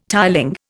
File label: "tiling", Indian